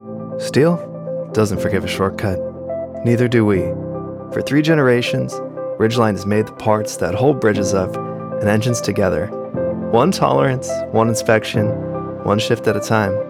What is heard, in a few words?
corporate manufacturing; warm grounded